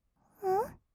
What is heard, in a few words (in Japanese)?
おっ, kawaii voice; ASMR; 色々なワンショット